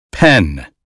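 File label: "pen", American English; 英语音标发音（剑桥版）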